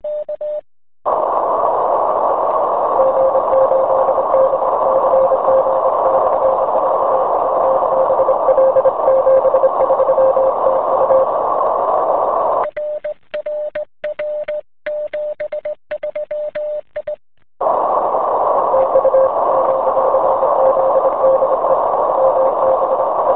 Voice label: qso